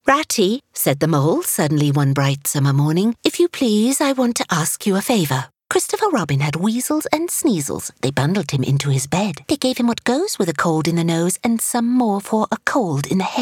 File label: Childrens Stories Eng